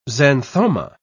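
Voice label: "xanthoma", English, British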